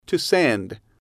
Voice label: to send, English